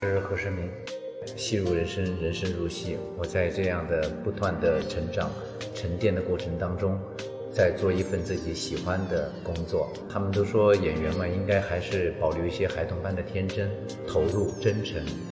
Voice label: interview